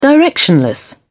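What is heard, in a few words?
"directionless", British English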